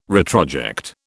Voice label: "retroject", British